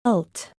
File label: LT belt phoneme name; әLt/, /әLt/, /әLt/, belt